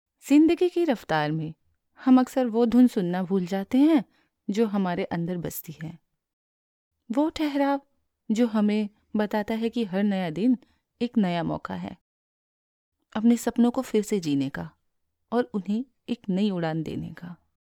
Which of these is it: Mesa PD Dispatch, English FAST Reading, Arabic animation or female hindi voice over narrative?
female hindi voice over narrative